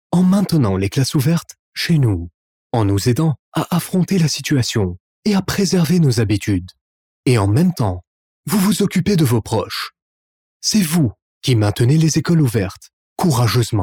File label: french african lebanese voiceover locutor frances libano; locutor francés de Líbano